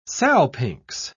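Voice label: "salpinx", British English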